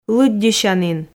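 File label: "лыддьысянін", Komi, female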